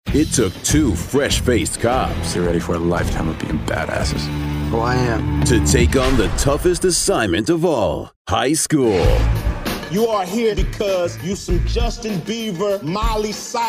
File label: Trailer